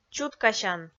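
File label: "чуткасян", Komi, female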